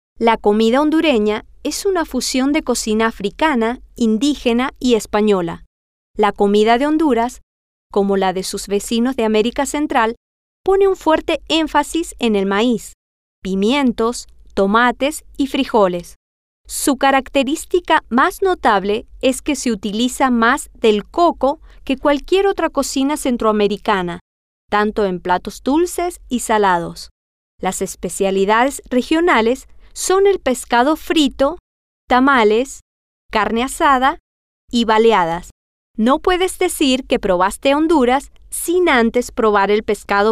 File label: Spanish; la Comida Hondurena